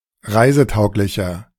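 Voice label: "reisetauglicher", German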